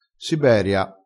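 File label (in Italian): "siberia", vocabolario dialettale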